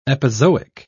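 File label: "epizoic", British English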